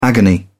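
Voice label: "agony", British English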